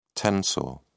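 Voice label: "tensor", British English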